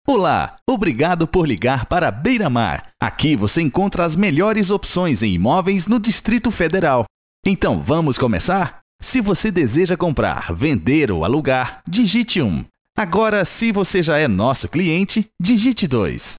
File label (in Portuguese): Pre Atendimento Voz; Pre-Atendimento Beiramar